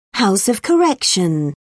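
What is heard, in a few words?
"house of correction", British English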